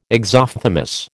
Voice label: "exophthalmos", American